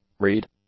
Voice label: "reed", English; nouns

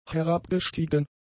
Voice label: "herabgestiegen", German; adjectives

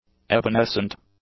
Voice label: "evanescent", English; adjectives

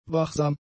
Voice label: "wachsam", German; adjectives

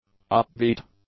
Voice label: "upbeat", English; nouns

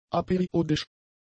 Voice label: "aperiodisch", German; adjectives

